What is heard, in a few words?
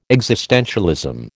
"existentialism", American